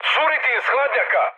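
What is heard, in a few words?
Serbian damaged water mood high; speech; War Thunder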